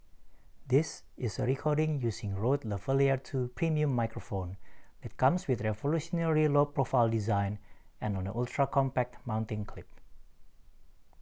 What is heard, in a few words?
RODE Lavalier II